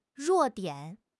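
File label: "弱点", Mandarin Chinese; HSK6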